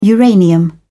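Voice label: "uranium", British English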